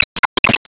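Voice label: Voice Emotion Surprise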